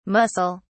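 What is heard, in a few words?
"mussel (s)", British English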